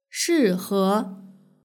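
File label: "shihe" Chinese word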